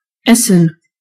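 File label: eat, German voices words; gcompris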